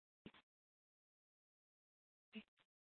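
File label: police dispatch, scanner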